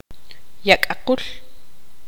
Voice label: tzutujil; ganso oca